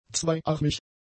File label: "zweiarmig", German; adjectives